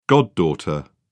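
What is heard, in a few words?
"goddaughter", British English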